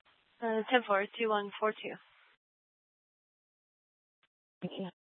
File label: Mesa PD Central Dispatch, Scanner; TOPAZ Regional Wrlss Cooperative (TRWC) - Mesa Simulcast